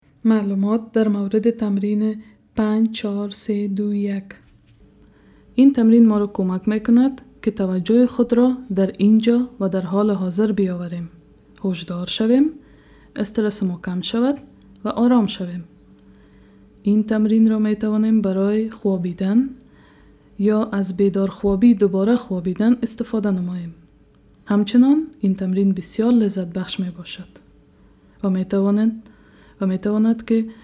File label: attention, dari